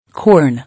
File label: "Corn", English